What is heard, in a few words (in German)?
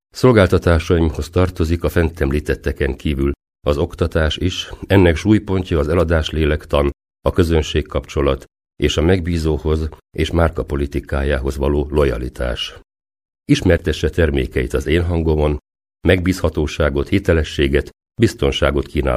Ungarn M